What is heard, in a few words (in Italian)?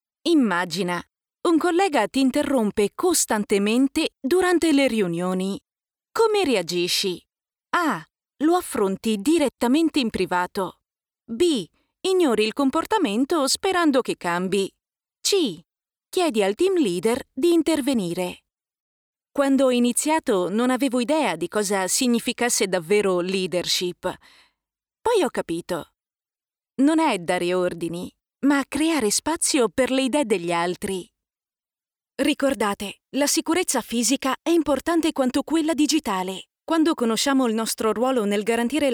E-Learning Interattivo